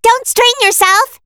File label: Assist Call, ENG; BBTAG